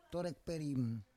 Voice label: "torek perim", Roon

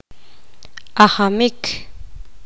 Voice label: poqomam; correr

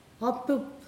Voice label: "coconut crab", Pingelapese

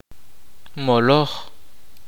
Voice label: kaqchikel; Meeting

